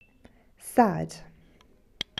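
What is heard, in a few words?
"sad", English; adj; fichiers sons du vocabulaire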